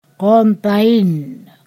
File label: "that far", Ponosakan